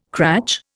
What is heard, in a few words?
"cratch", Indian